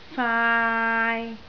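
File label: "faai3", Cantonese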